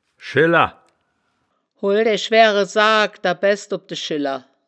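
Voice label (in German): "scheller", wittlicher woerterbuch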